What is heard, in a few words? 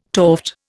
"toft", Indian